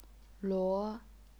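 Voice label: "luo", second tone, chinese pinyin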